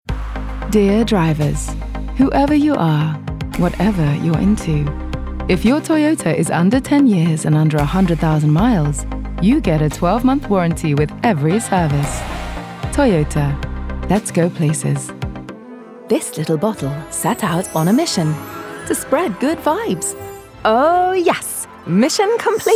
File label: Commercial; VOICERFOX